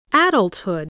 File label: "adulthood", English voice